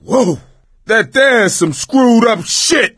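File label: team kill accident, voice, male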